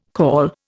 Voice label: "caul", Indian